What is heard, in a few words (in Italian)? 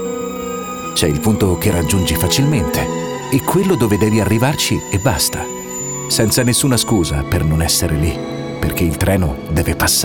documentario binari di ghiaccio